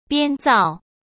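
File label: "编造", Mandarin Chinese; chinese flashcards; hsk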